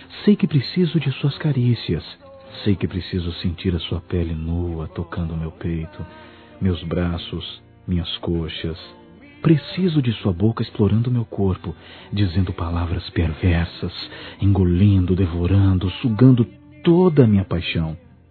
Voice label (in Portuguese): O PRAZER QUE SINTO POR VOCE (M), Telemensagens Sensuais masc